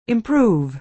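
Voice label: "improve", American English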